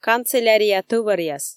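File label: "канцелярия тӧваръяс", Komi, female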